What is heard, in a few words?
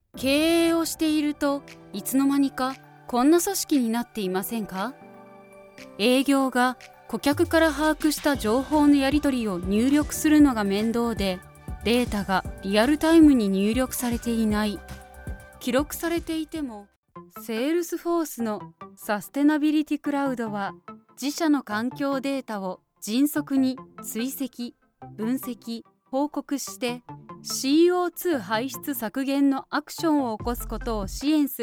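Japanese, Corporate; Golocalise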